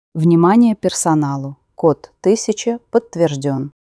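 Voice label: opoveshchenie kod 1000 podtverzhdyon, announcement code 1000 confirmed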